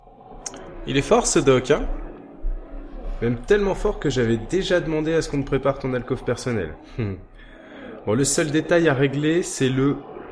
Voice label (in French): Message vocal